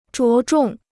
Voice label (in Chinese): "着重", Chinese